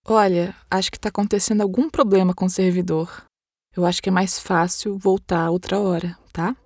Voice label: error page 500 conversational female brazilian portuguese voiceover